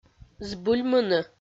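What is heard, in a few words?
"збыльмыны", Komi-Zyrian, female; audio dict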